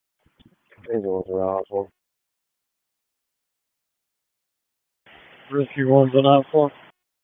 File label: FIRE OPS, p25; wake